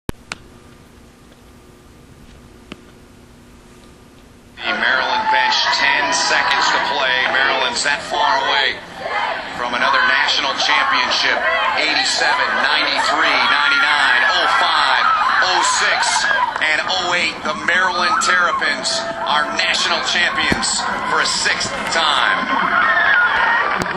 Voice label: ncaa champions announcement